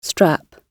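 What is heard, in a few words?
"strap", British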